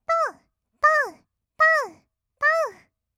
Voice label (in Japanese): とうとう, kawaii voice, 合いの手; 1200 kawaii voice!!; ASMR